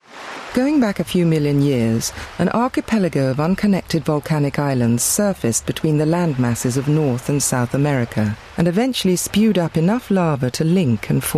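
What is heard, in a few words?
narration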